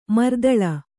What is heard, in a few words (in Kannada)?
"ಮರ್ದಲ", Kannada